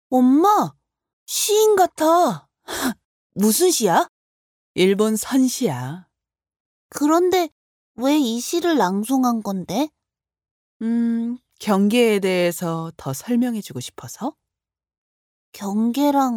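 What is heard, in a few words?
Korean female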